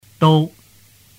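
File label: "dou", Mandarin Chinese; tu moi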